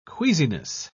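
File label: "queasiness", British English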